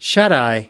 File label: "shuteye", us